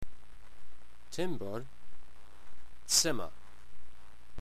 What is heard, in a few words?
"Timbor", englisc